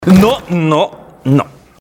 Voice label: no no nooooo